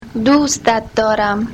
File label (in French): persan parlé